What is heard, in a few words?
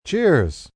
Cheers M, male: 'Cheers', (human voice speaking); Cheers, congratulations